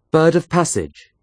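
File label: "A bird of passage", English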